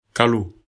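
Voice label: "kalo", Bambara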